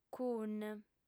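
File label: "cun", female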